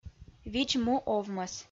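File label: "видз-му овмӧс", Komi, female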